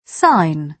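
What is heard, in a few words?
"sign", British English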